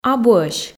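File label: "абуӧсь", Komi, female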